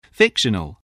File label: "fictional", spelling